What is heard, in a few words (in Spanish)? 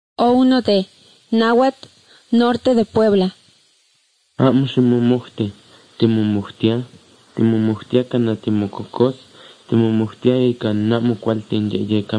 spanish mexico